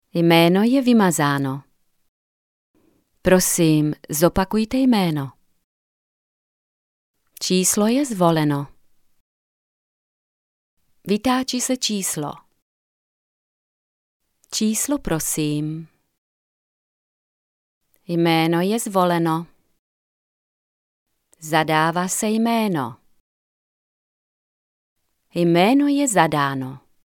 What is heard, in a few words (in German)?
tschechisch, Sprecherin, telefonansagen; tchechisch